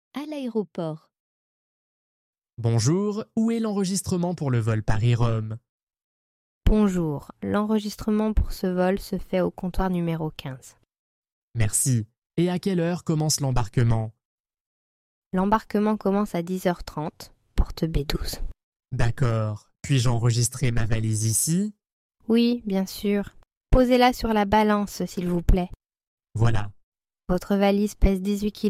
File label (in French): Dialogue en francais a l'aeroport; easy french dialogues